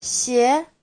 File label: "xie2", Chinese; giày